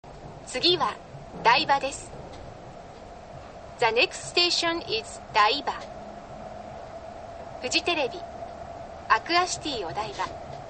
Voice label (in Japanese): 芝浦ふ頭発車後, 車内放送; お台場海浜公園発車後; yurikamome